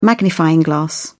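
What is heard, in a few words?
"magnifying glass", British English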